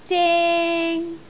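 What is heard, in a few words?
"zeng1", Cantonese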